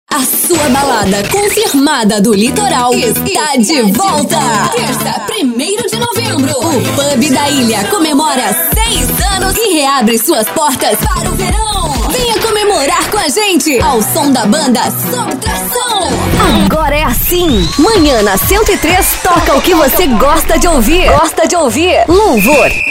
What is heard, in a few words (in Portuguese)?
locutora